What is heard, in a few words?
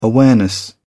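"awareness", British English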